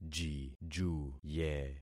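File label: "jijuye", concatenated syllables; Affixing experiment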